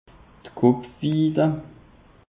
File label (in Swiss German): "kopfwiida", alsace; peche